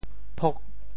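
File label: "phok8", Teochew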